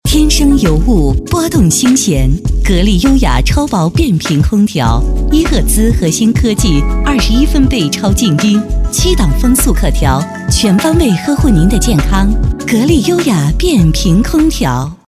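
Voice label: Advertisement female Chinese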